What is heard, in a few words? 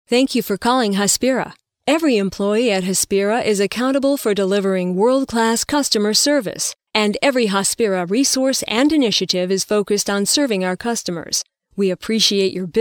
voicemail IVR